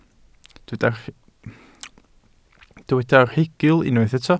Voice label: "rhugl", Welsh

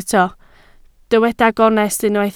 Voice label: "gonest" Welsh

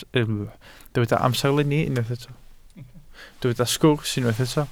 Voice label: "sgwrs" Welsh

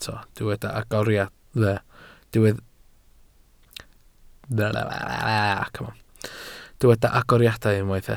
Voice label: "agoriadau", Welsh

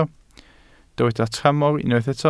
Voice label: "tramor", Welsh

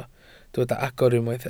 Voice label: "agor", Welsh

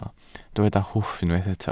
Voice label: "hwff" Welsh

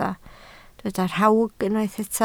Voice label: "rhawg", Welsh

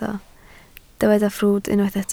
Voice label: "ffrwd", Welsh

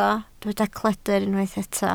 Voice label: "cledr", Welsh

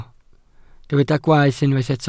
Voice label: "gwaeth", Welsh

